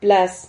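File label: "plaz", Spanish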